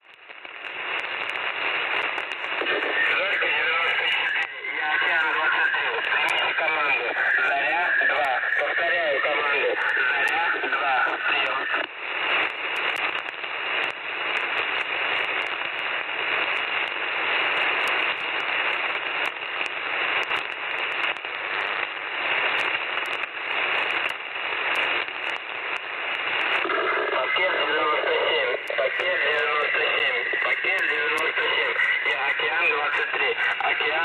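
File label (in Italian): traffic in russo, 4130 kHz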